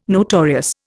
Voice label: "notorious", Indian

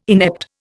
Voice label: "inept", Indian

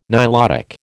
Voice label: "Nilotic", American

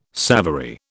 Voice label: "savoury", British

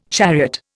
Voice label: "chariot", Indian

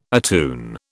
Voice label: "attune", British

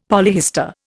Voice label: "polyhistor", Indian; Dictionary

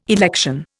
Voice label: "election", Indian

